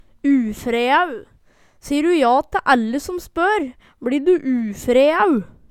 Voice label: ufreæu, Numedalsmål

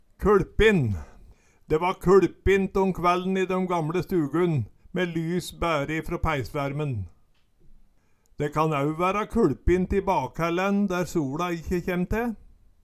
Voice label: "kuLpin", Numedalsmål

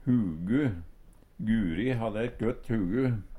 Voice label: hugu, Numedalsmål; Guri hadde eit gøtt hugu.

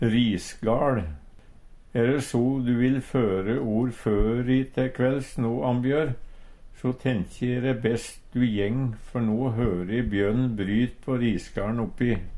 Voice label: risgal, Numedalsmål

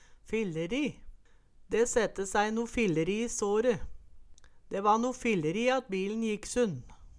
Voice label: "filleri", Numedalsmål; Ordet er ubøyeleg.

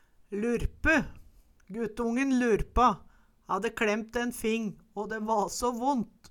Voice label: lurpe, Numedalsmål

